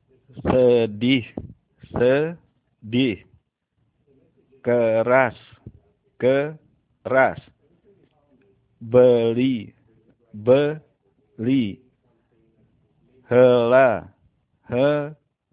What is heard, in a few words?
How to spell vowel E in Indonesian